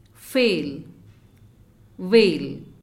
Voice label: "Fail" "veil"